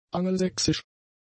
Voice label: "angelsächsisch", German; adjectives